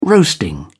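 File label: "roasting", British English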